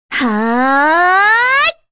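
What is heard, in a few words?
Vox Casting, korean; Kirze; kingsraid; voices heroes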